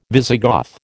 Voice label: "Visigoth", American

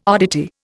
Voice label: "oddity", Indian

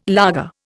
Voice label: "laager", Indian

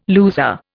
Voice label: "loser", Indian

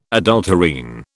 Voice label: "adulterine", British

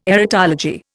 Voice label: "erotology", Indian

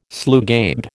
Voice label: "slugabed", American

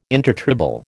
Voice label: "intertribal", American

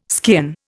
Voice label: "skean", Indian

